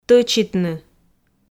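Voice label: "тӧчитны", Komi, female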